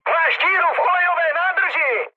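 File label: damaged oil, Czech, mood high; speech; War Thunder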